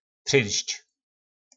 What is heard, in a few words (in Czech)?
"Přynšč", nářečí Po našimu; Projít